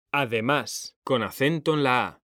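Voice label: "además", Spanish, male; mekanta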